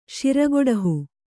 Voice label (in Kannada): "ಶಿರಗೊಡಹು", Kannada